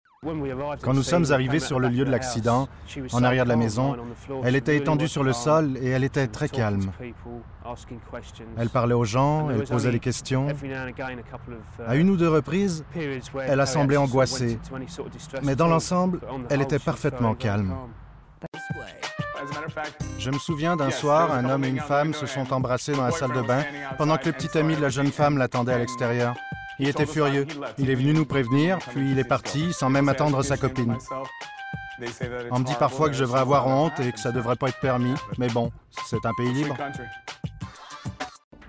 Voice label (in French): voix surimpression; Démo voix en surimpression vocale (1 min)